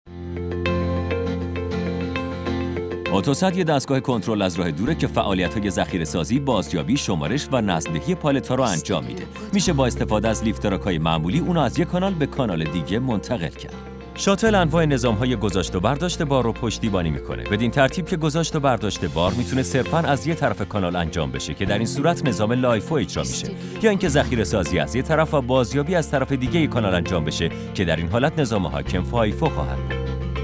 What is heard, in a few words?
narration